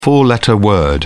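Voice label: "four letter word", English, British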